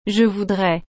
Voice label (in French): "Je Voudrais" I Would Like